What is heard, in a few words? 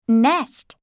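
"nest", English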